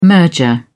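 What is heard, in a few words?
"merger", British English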